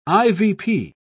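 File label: "ivp", British English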